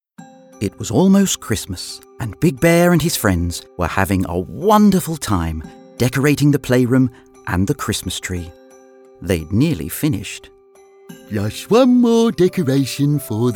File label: Narrative